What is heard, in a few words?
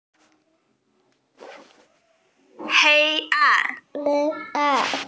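"hei1 an4", Mandarin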